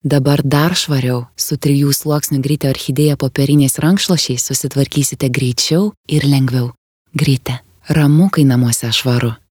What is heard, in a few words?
lithuanian female